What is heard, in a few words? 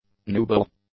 "nubile", english; adjectives